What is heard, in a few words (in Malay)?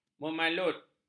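"memalut", Malay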